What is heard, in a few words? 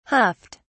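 "huffed", English, uk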